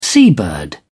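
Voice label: "seabird", English, British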